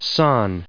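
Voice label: "san", English, us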